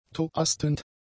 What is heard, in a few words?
"toastend", German; adjectives